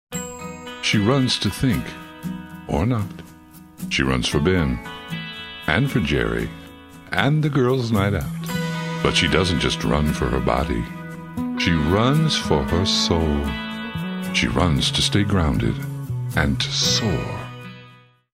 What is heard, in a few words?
Soft Spoken; Voice123